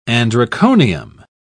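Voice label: "androconium", English, British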